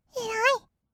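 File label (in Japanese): "えらいっ", 日本語, kawaii voice; ASMR; 色々なワンショット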